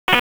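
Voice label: Police Northeast District Dispatch, p25; Raleigh; Wake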